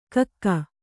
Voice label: "ಕಕ್ಕೆ", Kannada

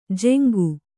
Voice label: "ಜೆಂಗು", Kannada

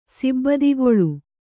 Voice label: "ಸಿಬ್ಬಿದಿಗೊಳ್", Kannada

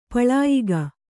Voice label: "ಪಳಯಿಗೆ", Kannada

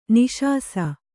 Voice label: "ನಿಶಾಸ", kannada

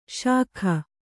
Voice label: "ಷಕ್ಕು", Kannada

